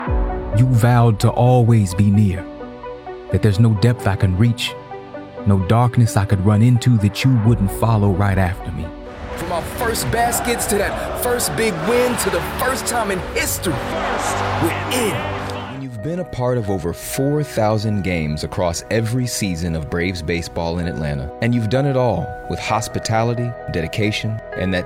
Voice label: Promo